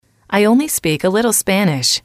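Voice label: "I only speak a little language", English, female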